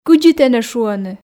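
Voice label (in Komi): кыдзи тэнӧ шуӧны, Komi, female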